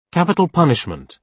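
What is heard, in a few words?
"capital punishment", British English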